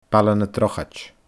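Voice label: "Baile na Drochaid", Scottish Gaelic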